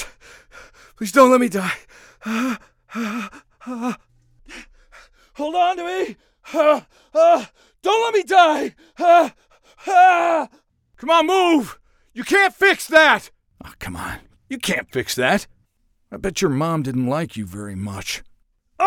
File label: Recruit Male